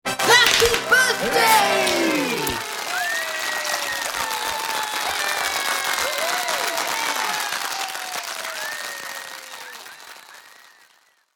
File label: Happy Birthday’ spoken in zany voice with cheers, drinks, applause and fanfa; Birthday Surprise; Happy Birthday’ spoken in zany voice with cheers, drinks, applause and fanfare; Holidays